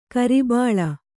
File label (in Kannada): "ಕುರಿಬಳ್ಳಿ", Kannada